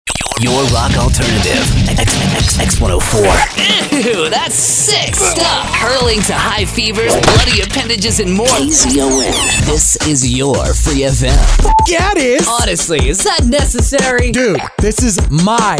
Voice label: Male